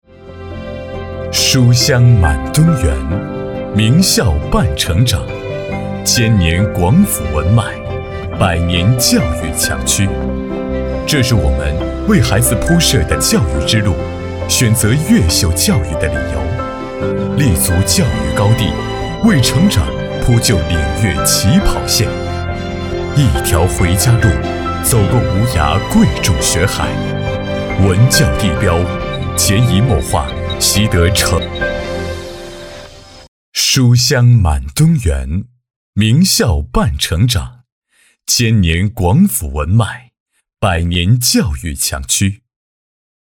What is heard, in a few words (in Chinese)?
男 地产; 东园教育; 房产宣传片配音